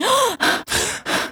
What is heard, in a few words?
female; oblivion